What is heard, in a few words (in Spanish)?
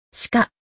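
"venado", español; Relaciona Palabras en Ingles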